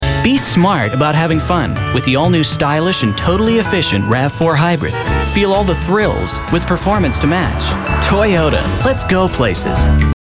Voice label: commercial fun practical stylish